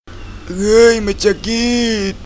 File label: Tagalog; games; ringtones